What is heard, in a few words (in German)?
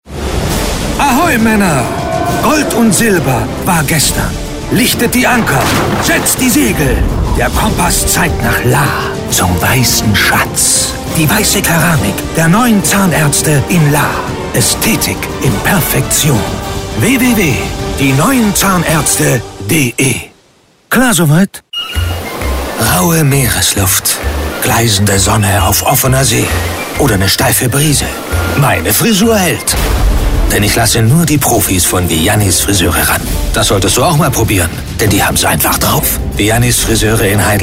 telefonansagen sprecher; Hollywood Sprecher am Telefon